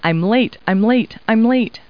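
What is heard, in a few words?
woman; Talking Clip Words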